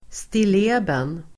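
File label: "stilleben", Swedish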